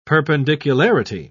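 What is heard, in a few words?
"perpendicularity", British English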